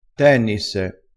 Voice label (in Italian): "tennisse", vocabolario dialettale